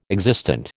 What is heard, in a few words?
"existent", American